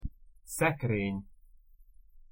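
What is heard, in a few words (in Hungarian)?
"szekreny" Hungarian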